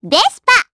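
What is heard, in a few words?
Vox Japanese; Pansirone; Vespa; kingsraid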